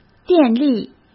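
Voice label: "电力", Mandarin Chinese; chinese flashcards; hsk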